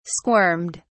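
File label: "squirmed", British English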